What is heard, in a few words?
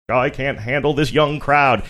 saying i cant handle this young crowd singsongy